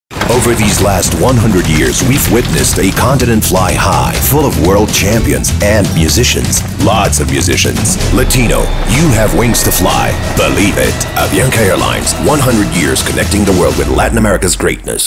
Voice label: male english usa usa accent airlines commercial; avianca